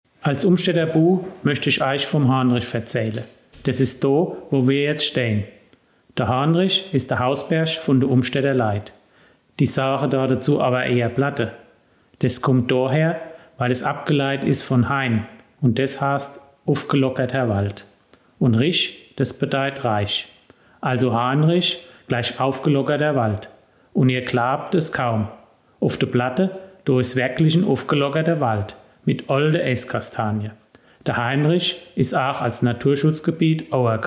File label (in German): Mundart